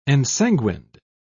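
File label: "ensanguined", British English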